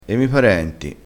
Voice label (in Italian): "e", vocabolario dialettale